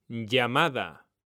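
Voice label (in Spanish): "LLAMADA", male; mekanta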